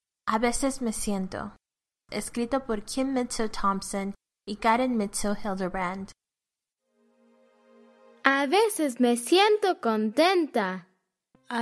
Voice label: Spanish Narration